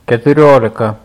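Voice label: "Keturiolika", Lithuanian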